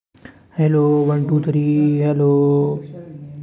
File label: voice message